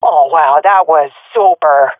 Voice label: sees team combo, swat fem; vo; agents; Source Model Packs; Counter Strike Model Pack; CS2

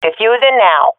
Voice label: defusing, swat fem; vo; Counter Strike Model Pack; CS:GO

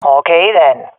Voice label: agree, swat fem; vo; Counter Strike Model Pack; CS:GO

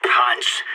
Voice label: bomb exploding, sas; agents

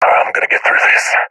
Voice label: scared; Counter Strike Model Pack; CS:GO

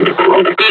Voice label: announce enemy zombie; combine charger; Half Life Alyx

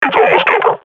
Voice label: firing, combine grunt

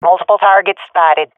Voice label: sees multiple enemies, swat fem; vo; agents; Source Model Packs; Counter Strike Model Pack; CS2

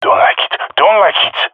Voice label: scared, jungle male epic; player vo; Counter Strike Model Pack; CS:GO

